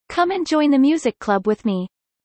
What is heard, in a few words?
fast; studying abroad